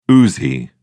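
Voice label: "uzi", British English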